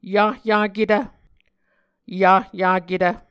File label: "yahyaketv", muscogee